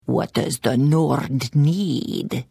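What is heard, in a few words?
Hello, Khajiit female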